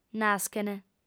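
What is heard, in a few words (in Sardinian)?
"naschent", Sardinian, female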